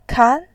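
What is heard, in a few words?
"kan", Mandarin Chinese, second tone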